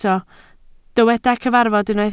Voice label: "cyfarfod", Welsh